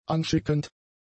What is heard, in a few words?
"anschickend", German; adjectives